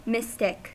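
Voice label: "mystic", English, American accent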